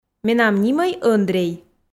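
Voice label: Komi, female; менам нимӧй ӧндрей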